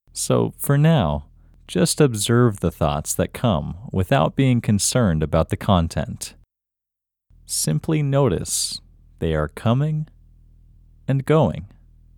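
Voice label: Male, India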